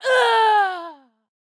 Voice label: Female Human Death; Hearthstone